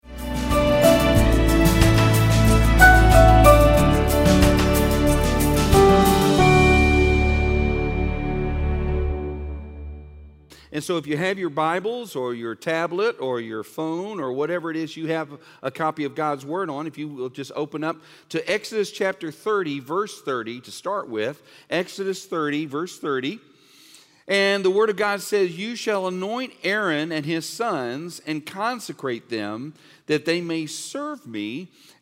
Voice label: Sermon English